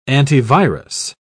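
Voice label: "antivirus", British English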